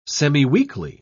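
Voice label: "semiweekly", English, British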